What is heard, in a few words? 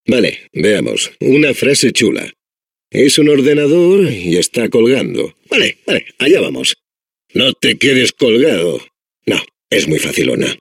Adventure core babble, es; Core voice lines